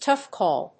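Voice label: "tough call", English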